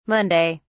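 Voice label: "monday", British English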